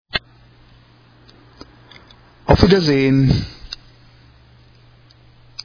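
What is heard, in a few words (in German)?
"Auf Wiedersehen", German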